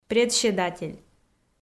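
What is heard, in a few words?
"председатель", Komi, female; kpv audio dict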